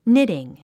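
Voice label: "knitting", English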